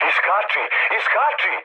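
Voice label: Serbian bailout mood med, speech; War Thunder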